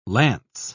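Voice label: "launce", British English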